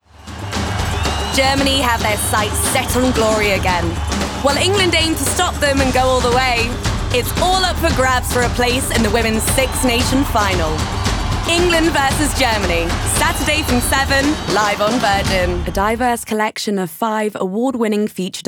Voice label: Promo, Upbeat, Cool, Confident, RP ('Received Pronunciation')